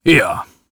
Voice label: Warrior emotion agree